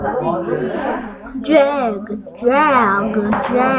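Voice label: "jig", spoken word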